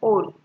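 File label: "hole", Nigerian Pidgin, female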